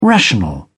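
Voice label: "rational", British English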